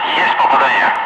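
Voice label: Russian speech, Ka-50 Wingman; good hits on target; DCS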